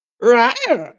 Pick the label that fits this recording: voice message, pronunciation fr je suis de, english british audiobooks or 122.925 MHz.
voice message